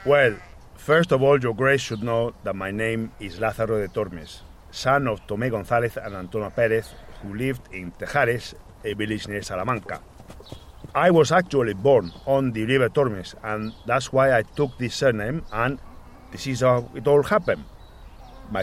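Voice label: Spanish English, male, heavy accent, accent of spain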